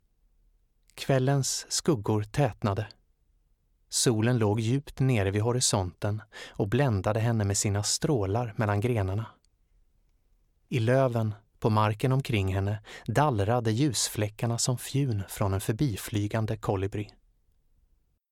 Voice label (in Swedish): ljudbok